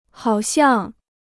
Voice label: "好像", Chinese